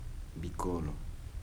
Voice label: evenings, Kibembe